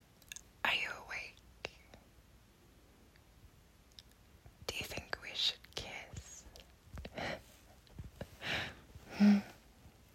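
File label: whispered, intimate, female; F4A; Do you think we should kiss; a taste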